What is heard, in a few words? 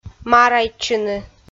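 "марайтчыны", Komi, female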